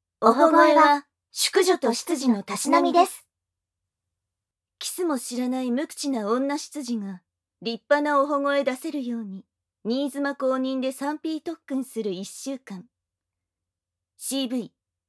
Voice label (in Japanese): タイトルコール, ASMR